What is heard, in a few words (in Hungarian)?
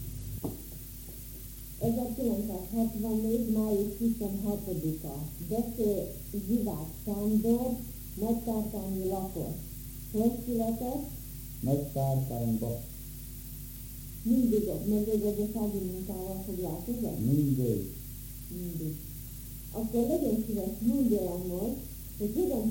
nyelvjaras gyujtesek